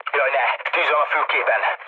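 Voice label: Hungarian on fire mood low, speech; War Thunder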